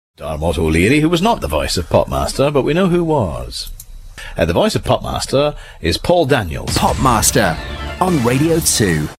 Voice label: radio; popmaster; radio 2

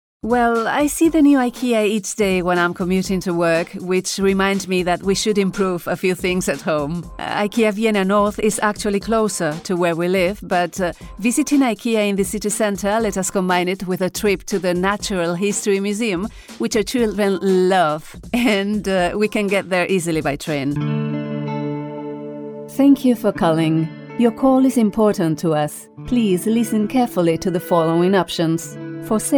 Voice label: spanish female english

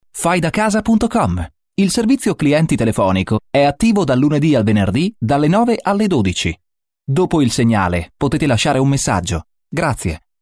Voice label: italian on hold

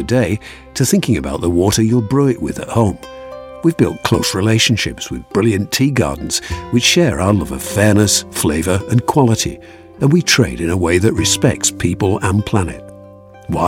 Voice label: yorkshire tea narration

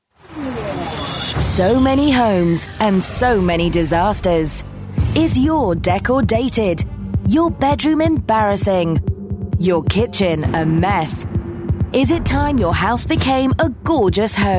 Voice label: corporate and narration

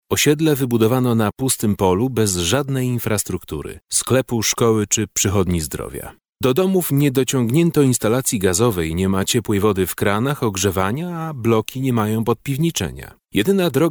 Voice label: polish, radio documentary

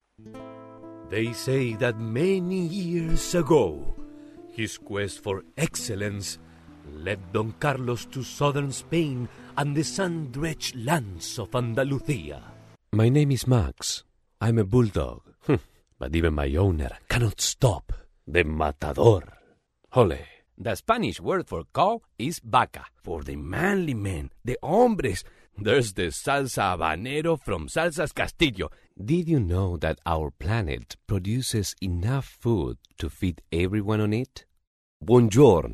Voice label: english with accents

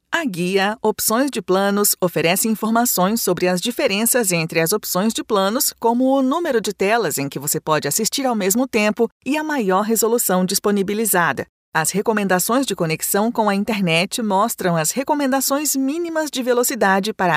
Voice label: brazilian portuguese voice

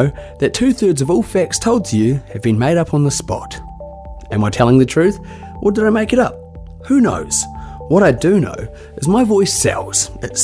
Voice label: new zealand male dry studio

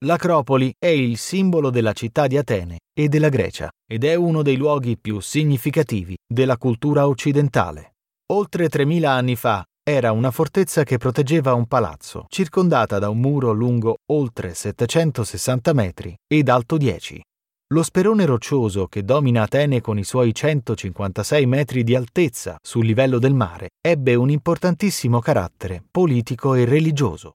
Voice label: italian voice documentary

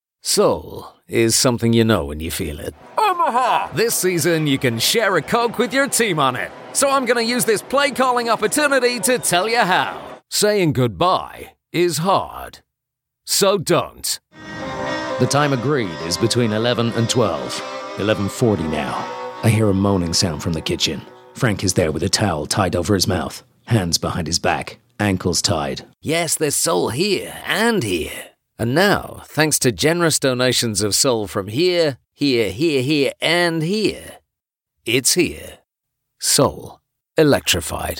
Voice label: american accent

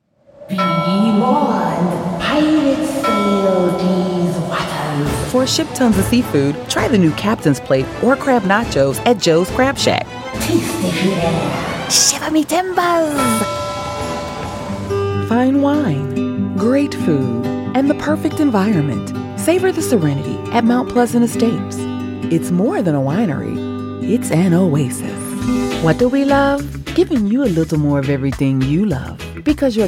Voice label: african american commercial

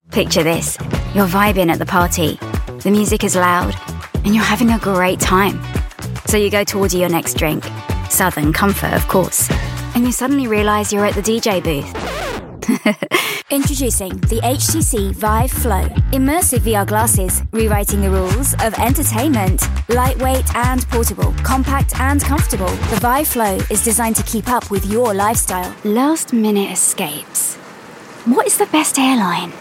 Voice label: london commercial

